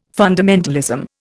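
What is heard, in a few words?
"fundamentalism", Indian English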